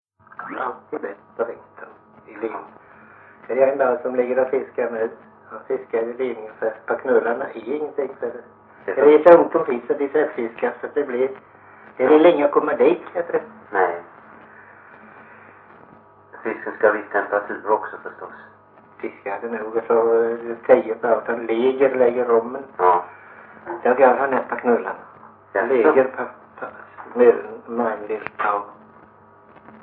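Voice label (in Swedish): Morup Halland, svenska sagor dialekter; Folkminnen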